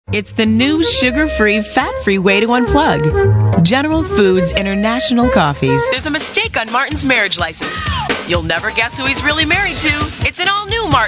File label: voiceovers female